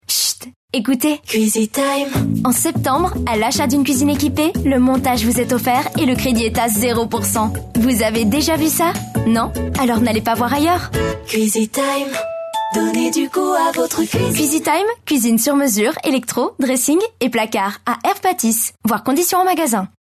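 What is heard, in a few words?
French, female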